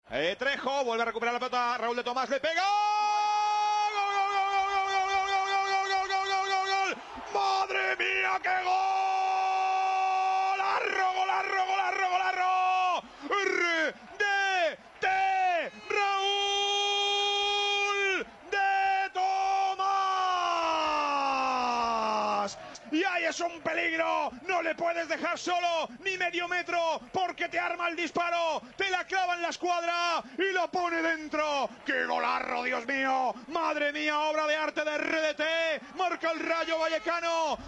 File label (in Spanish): NARRACION GOL